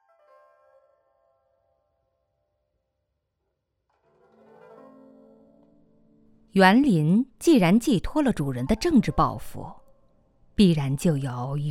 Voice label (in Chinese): 纪录片; 远香堂